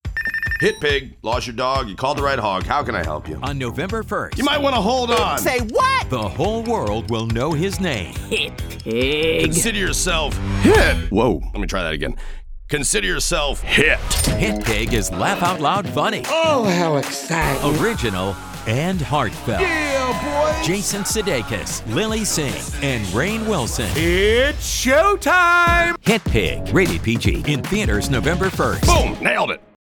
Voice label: Trailers; Hitpig!